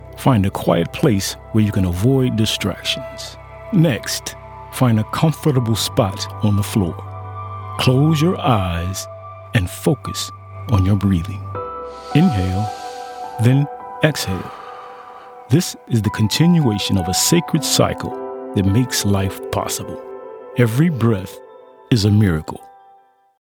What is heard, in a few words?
meditation